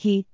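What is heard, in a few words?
"hit3", Cantonese; amazonHiuJin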